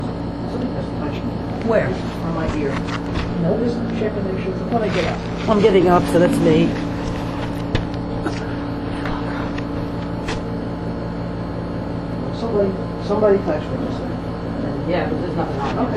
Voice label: mans voice long